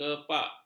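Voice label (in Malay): "kepak", Malay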